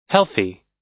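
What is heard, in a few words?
"healthy", US English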